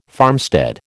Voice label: "farmstead", American

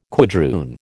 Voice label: "quadroon", American

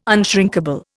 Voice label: "unshrinkable", Indian

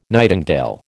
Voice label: "nightingale", American

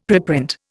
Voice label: "preprint", Indian; Dictionary

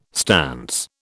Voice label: "stance", British

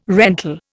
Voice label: "rental", Indian

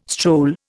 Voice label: "stroll", Indian

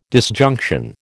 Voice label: "disjunction", American

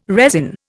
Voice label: "resin", Indian

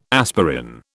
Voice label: "aspirin", British

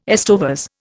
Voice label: "estovers", Indian

